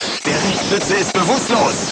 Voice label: voice message gunlayer contused, crew tanks german